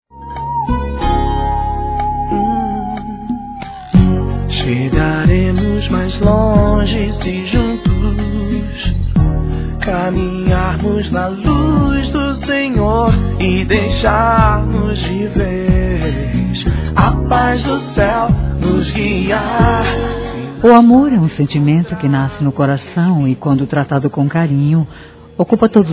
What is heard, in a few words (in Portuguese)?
aniv. Namorado f, Gospel, fem; Telemensagens; Telemensagens 2017